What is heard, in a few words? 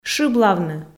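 "шыблавны", Komi, female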